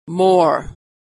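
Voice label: "more", American English; vowel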